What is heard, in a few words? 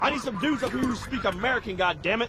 speak american